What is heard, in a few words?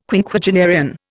"Quinquagenarian", Indian